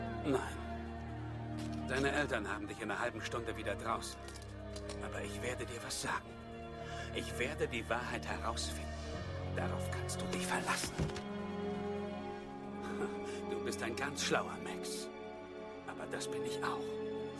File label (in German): german